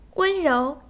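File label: "wen1rou2", Chinese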